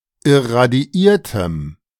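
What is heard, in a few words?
"irradiiertem", German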